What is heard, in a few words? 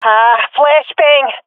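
blinded, swat female; vo; Counter Strike Model Pack; CS:GO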